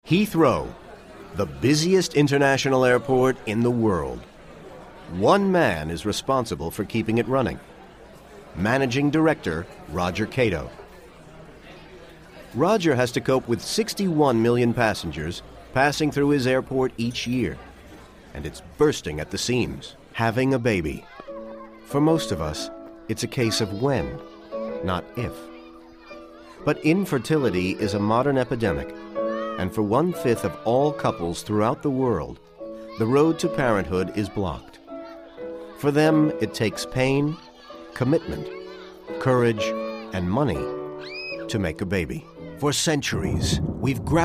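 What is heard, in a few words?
US English, Documentary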